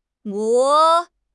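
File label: "guo2", Chinese; HSK1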